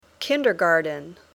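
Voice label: "kindergarten", English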